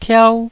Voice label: "tiau1", taiwanese Syllables